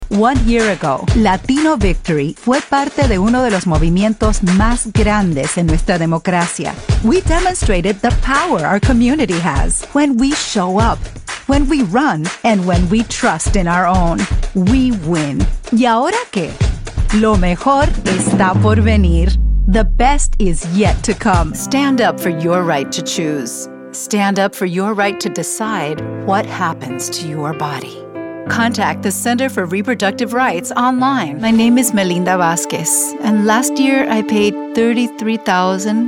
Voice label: Political Bilingual, voiceover